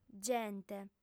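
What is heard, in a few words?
"gente", Sardinian, female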